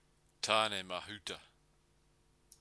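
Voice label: "tane mahuta", Māori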